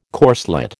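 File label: "corslet", American English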